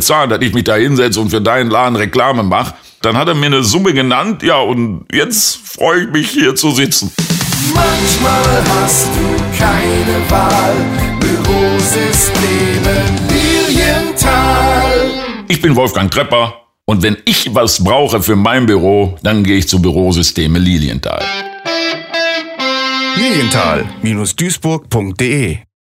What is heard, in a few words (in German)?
radiowerbung; wolfgang trepper